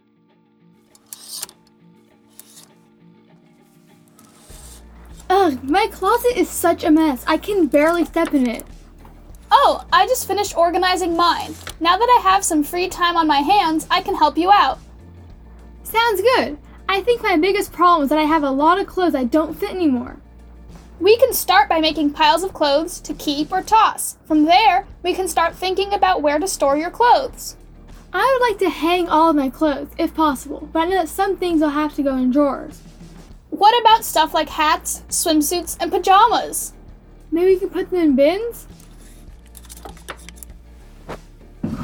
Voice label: dialog